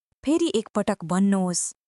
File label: Nepali, basic phrases